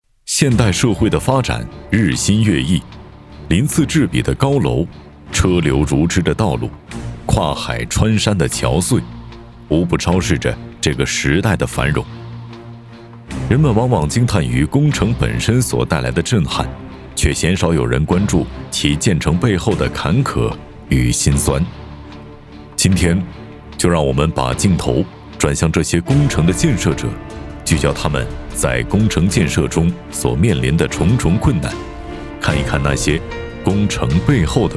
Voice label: 男, 解说 法制纪录片; 中铁

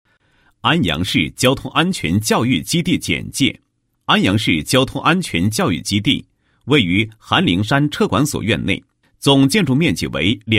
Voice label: 男 讲述; 安阳市交通安全教育基地

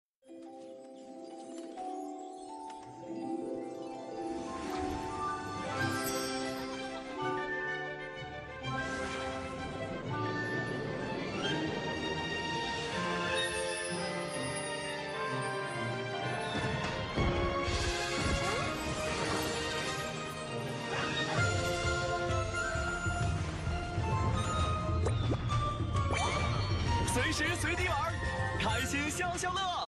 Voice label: 男, 嗨; 开心消消乐

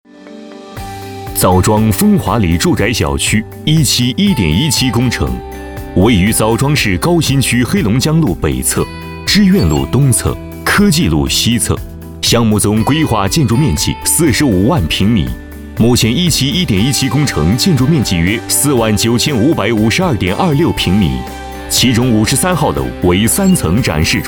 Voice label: 男 专题 工程解说

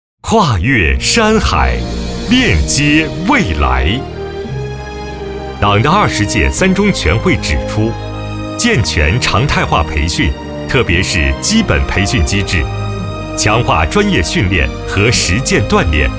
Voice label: 男 党政专题; 跨越山海--链-接未来

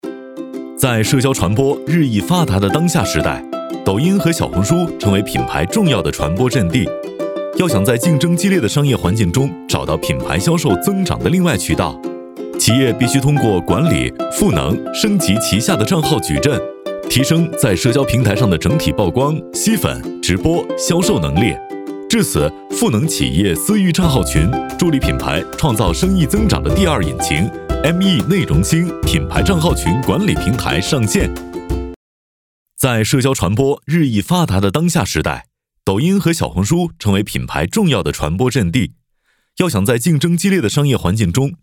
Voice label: 男 MG; 内容星

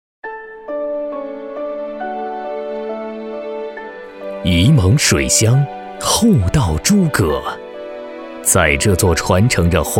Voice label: 男 宣传 专题

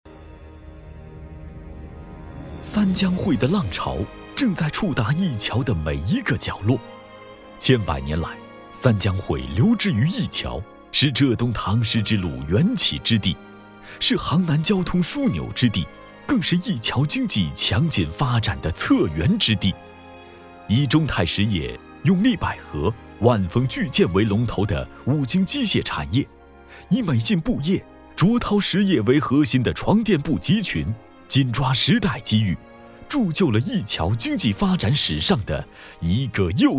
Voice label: 男 城市宣传 年轻

